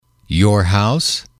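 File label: "house", English, male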